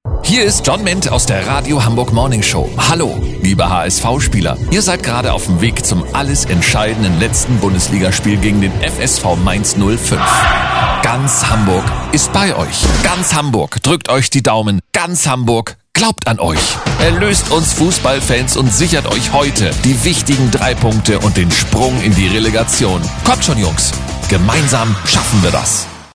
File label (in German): spot; hsv mainz; ffh